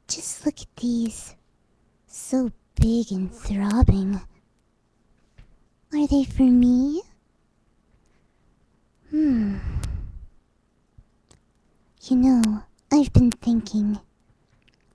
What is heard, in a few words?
Aww... is my big draconequus needy? (playful) -Wait a second, then giggle; (exhaled:lip bite) Just look at these~, so big; Fluttershy